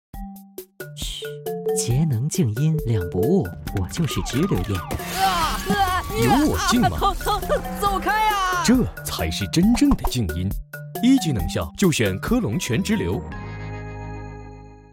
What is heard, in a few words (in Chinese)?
男 广告 空调; 科龙空调